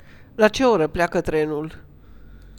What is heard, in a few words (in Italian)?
treno, rumeno; frasi utili